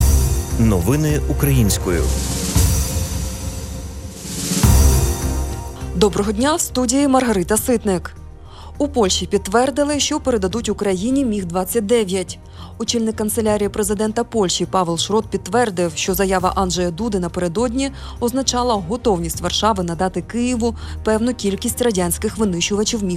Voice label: Ukrainian Evening News